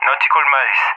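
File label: "Nautical miles", English speech; Allied Flight; DCS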